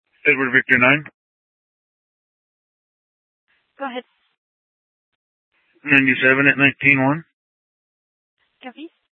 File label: Mesa PD Fiesta Dispatch